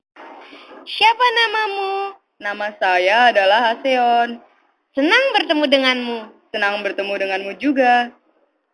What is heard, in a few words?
greeting, indonesian